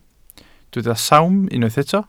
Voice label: "sawm", welsh

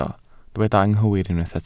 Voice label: "anghywir", Welsh

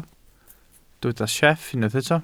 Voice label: "sieff", Welsh

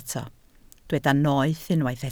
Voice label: "noeth", Welsh

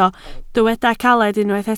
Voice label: "caled", Welsh

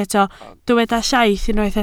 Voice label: "llaith", Welsh

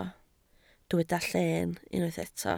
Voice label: "lleen", Welsh

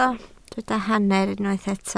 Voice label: "hanner", Welsh

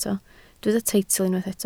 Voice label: "teitl", Welsh

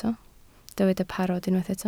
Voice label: "parod", Welsh

